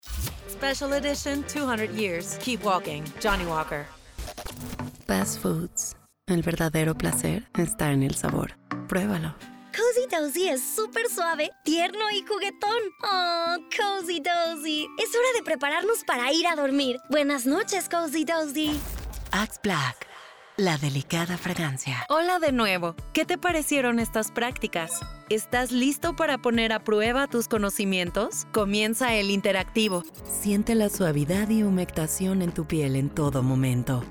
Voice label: spanish mexican promos, mexican spanish voice actors